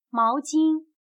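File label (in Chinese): "毛巾", Chinese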